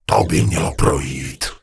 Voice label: Gnome Male Flirt; wowpreklad; czwow; GnomeVocalMale

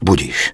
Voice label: Night Elf Male Standard NPC Vendor; wowpreklad; czwow

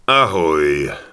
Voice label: Human Male Warrior NPC Greeting; wowpreklad; czwow

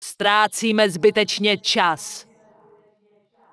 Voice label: Night Elf Female Sentinel Pissed; NPC; wowpreklad; czwow

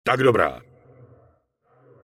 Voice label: Night Elf Male You're Welcome; wowpreklad; czwow; NightElfVocalMale

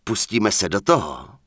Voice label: Human Male Standard Greetings; NPC; wowpreklad; czwow

